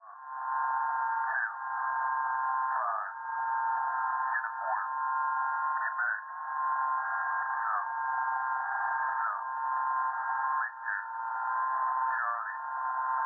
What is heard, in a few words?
letters 4724 kHz; Perseus; Schwarzsee